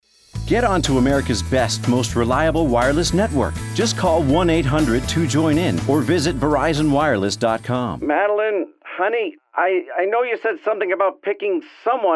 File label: commercial demo